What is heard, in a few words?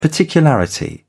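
"particularity", British English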